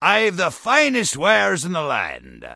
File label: Dwarf Male Standard NPC Vendor